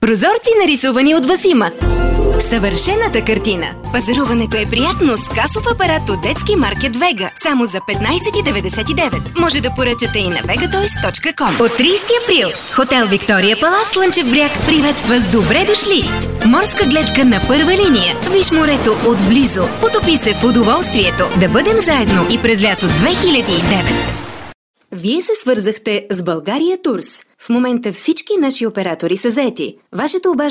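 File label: Bulgarian, general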